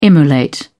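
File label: "immolate", British English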